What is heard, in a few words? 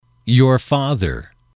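"father", english, male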